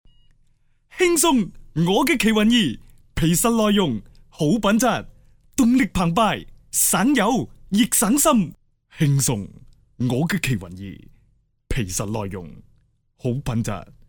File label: Cantonese male